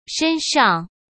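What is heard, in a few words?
"身上", Mandarin Chinese; hsk; chinese-flashcards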